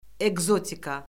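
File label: "ekzotika", Azerbaijani; Orfoqrafiya Lüğəti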